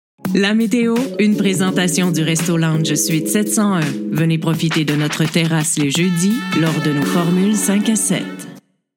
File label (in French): Voix Douce